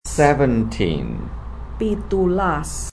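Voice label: "Pitulas" Javanese; 17 seventeen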